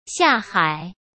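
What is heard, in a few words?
"下海", Mandarin Chinese; chinese-flashcards; hsk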